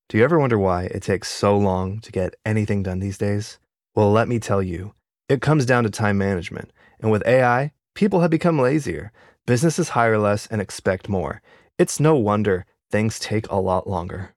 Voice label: commentary spokesperson, persuasive confident direct